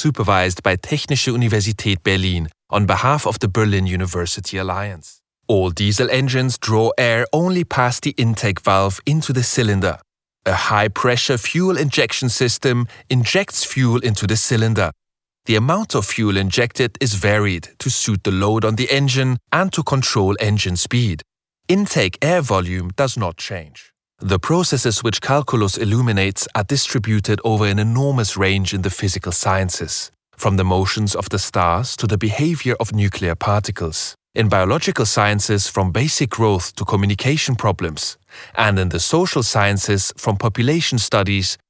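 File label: English with German accent E Learning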